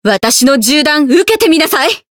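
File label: Voice chant, Japanese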